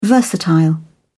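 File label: "versatile", British English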